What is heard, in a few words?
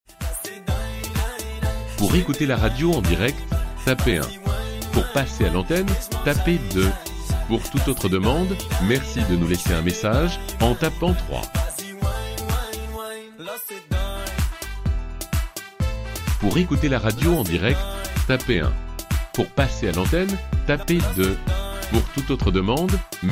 IVR